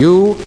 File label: "u", male British